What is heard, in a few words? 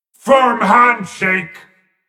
Male Mech Attack